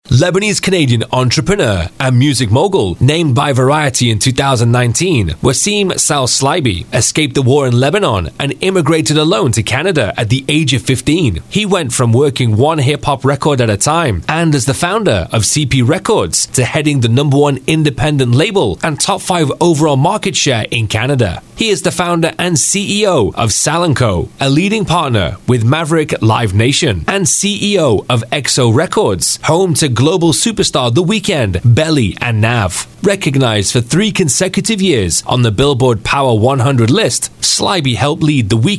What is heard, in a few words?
english british narration